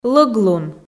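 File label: "лӧглун", Komi, female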